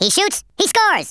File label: "fire", US Sports